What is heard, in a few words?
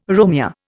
"Romeo", Indian